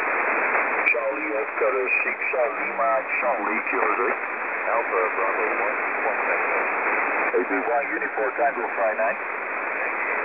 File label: qso; 14218khz